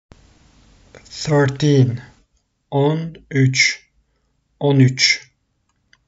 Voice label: thirteen 13, turkish; the talking turkish phrasebook

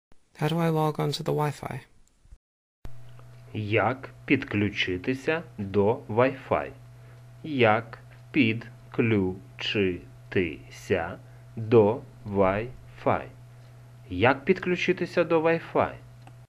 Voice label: UKR How do I log on to the Wi-Fi

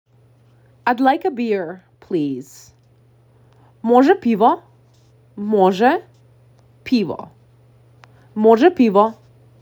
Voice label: I'd like a beer please, macedonian; the talking macedonian phrasebook

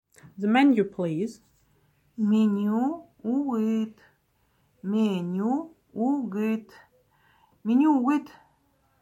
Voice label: The menu please, buryat

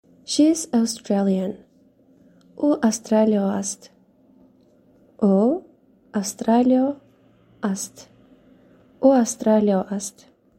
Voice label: She is Australian, tajik

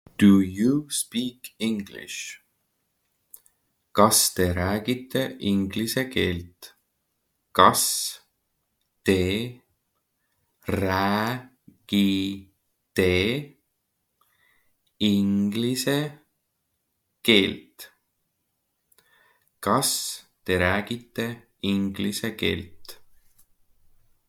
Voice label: Do you speak English, Estonian; the talking estonian phrasebook

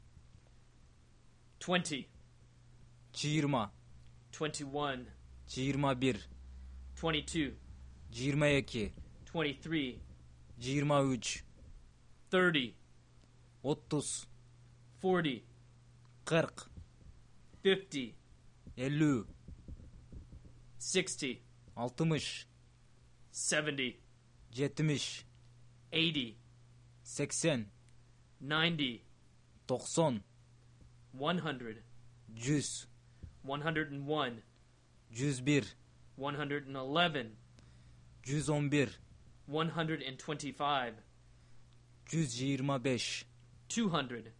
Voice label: numbers 20-200 slow, kyrgyz

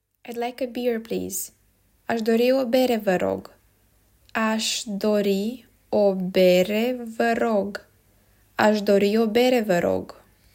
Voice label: I'd like a beer please, Romanian phrasebook

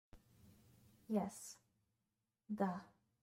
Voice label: "Yes", Bulgarian; the talking bulgarian phrasebook

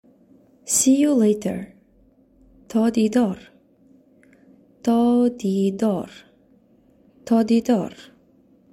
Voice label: See You Later Tajik

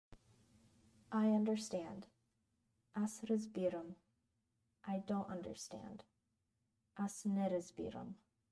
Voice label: I understand I don't understand, bulgarian; the talking bulgarian phrasebook

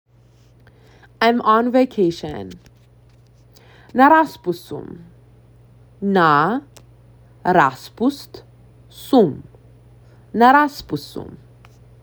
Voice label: I am on vacation student, Macedonian; the talking macedonian phrasebook

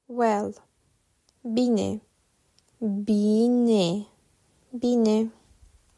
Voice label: "Well", romanian

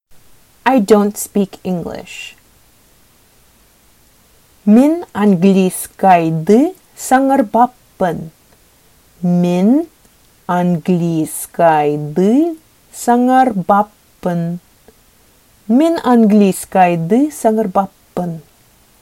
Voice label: Sakha I don't speak English

